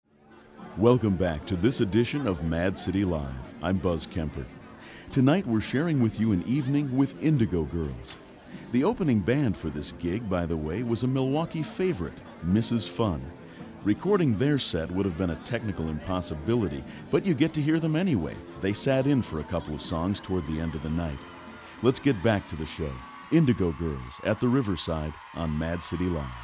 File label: announcer